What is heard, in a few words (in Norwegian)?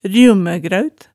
"rjummægraaut", Hemsedal